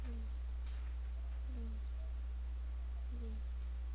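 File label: "lead", spoken word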